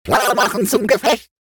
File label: robot Lets Battle; World Of Warships